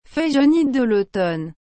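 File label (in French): "feuille jaunies de lautomne", French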